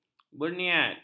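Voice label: "berniat", Malay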